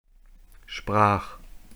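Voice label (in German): "sprach", German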